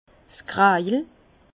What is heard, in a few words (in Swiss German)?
"krajel", Bas Rhin; vin